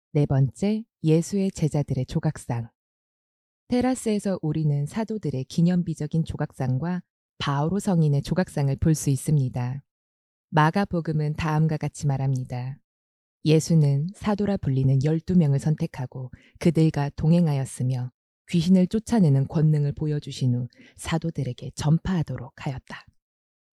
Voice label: Audio Coreano; TIBIDABO; audioguias

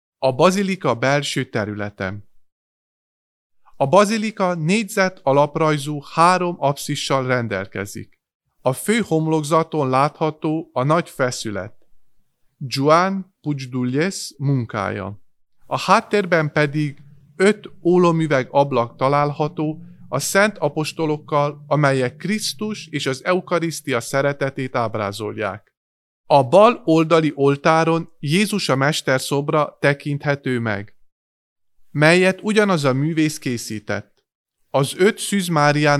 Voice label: Audio Hungaro; TIBIDABO; magyar

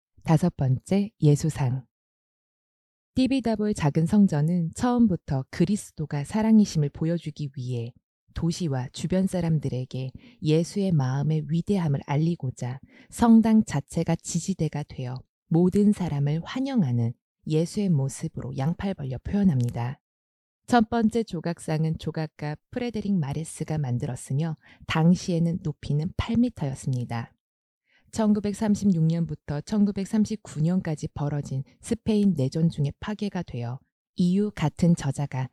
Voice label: Audio Coreano; TIBIDABO; audioguias

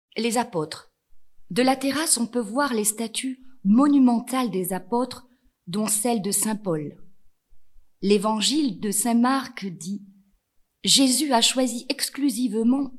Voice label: Audio Frances; TIBIDABO; audioguides francais

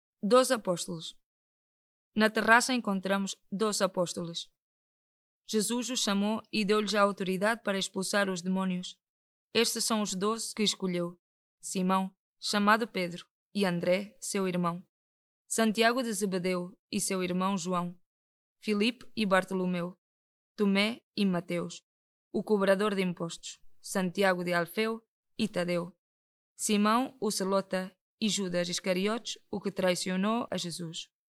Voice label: Audio Portugues

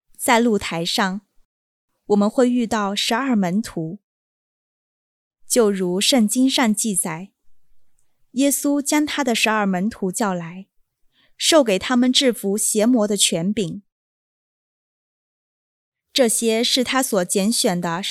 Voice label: Audio Chino; TIBIDABO; 中国人

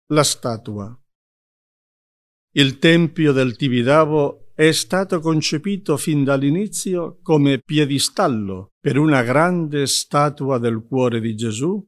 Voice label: Audio Italiano; TIBIDABO; audioguida italiana

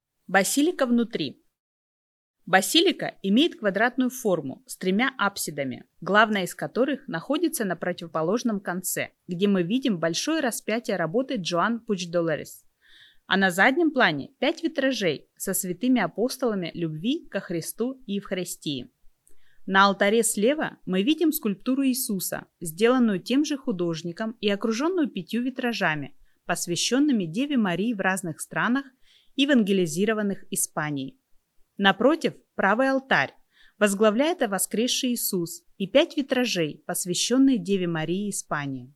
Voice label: Audio Ruso; TIBIDABO; русский аудиогид

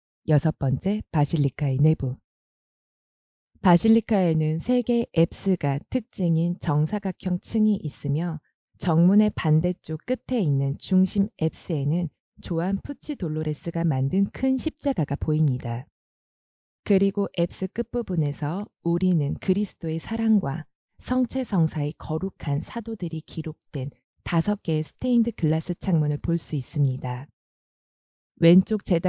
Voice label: Audio Coreano; TIBIDABO; audioguias

